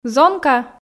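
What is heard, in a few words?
"зонка", Komi, female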